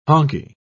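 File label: "honkie", British English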